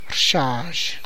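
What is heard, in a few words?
Tlacochahuaya Zapotec; sneezes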